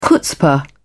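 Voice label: "chutzpah", British English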